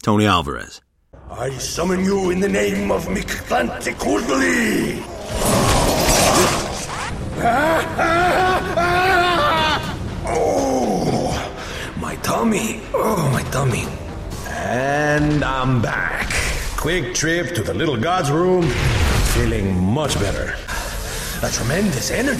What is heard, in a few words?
animation men interactive